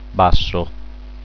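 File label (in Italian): "basso", Italian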